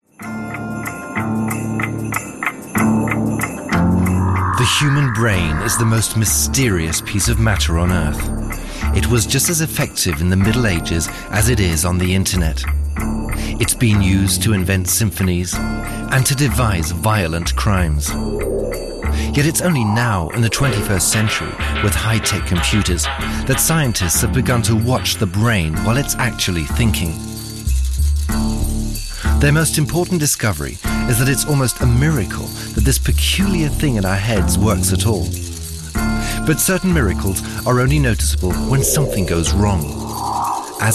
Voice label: voiceover native speaker uk british documentation; englischer sprecher; Beautiful Minds